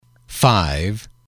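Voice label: "five", English, male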